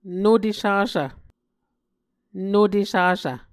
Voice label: "nute sasv", Muscogee